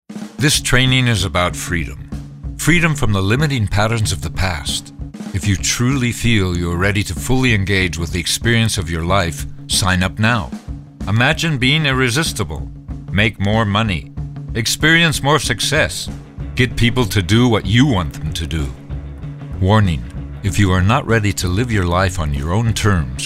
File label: E-learning